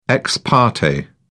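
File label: "ex parte", British English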